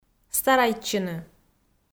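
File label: "старайтчыны", Komi-Zyrian, female; audio dict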